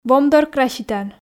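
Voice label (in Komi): "вомдор краситан", Komi, female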